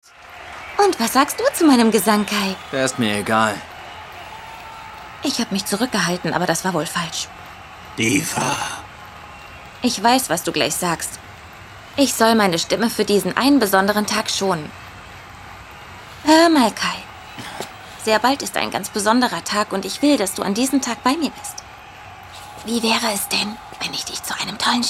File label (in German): Schauspiel Niedlich